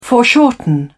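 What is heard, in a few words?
"foreshorten", British English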